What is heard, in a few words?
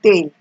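"tail", Nigerian Pidgin, female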